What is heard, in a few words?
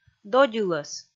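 "додь ылӧс", Komi, female; kpv audio dict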